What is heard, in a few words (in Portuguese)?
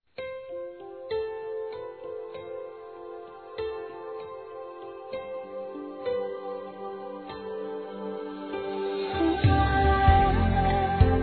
Aniversário Padrasto Masculino; Aniversários; Aniversários diversos